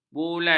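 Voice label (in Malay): "bulai", Malay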